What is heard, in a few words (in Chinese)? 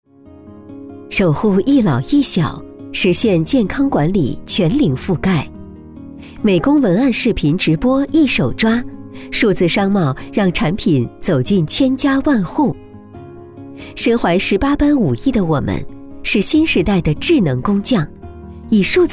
女; 数字服务产业